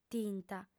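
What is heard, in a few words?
"tinta", female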